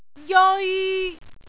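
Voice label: "jeoi1", Cantonese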